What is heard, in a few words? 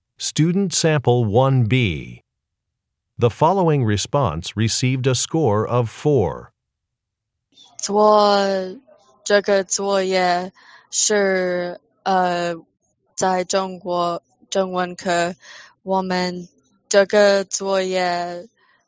chinese conversation; ap chinese language and culture